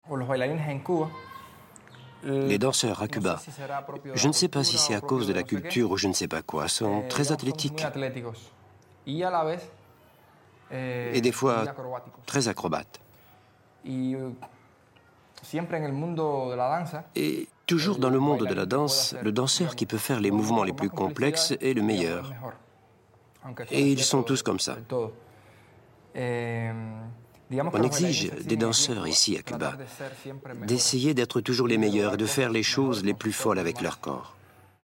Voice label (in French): voix GB